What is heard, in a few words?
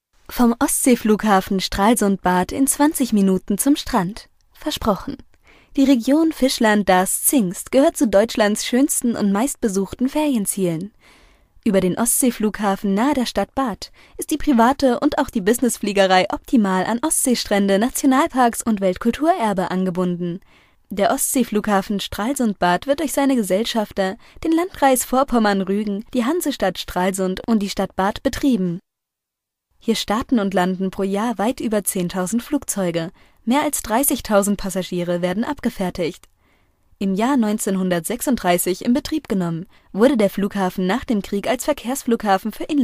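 German, female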